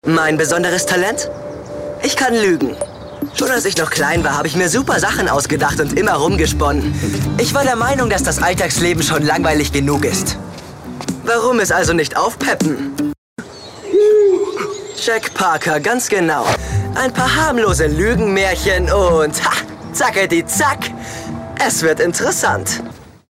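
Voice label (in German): acting, Dynamisch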